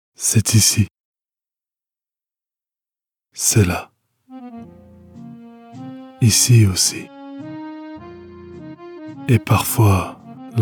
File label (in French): Emotion, narration voix off masculine; Paris